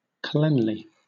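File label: "cleanly", British English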